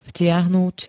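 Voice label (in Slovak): "vtiahnuť", Slovak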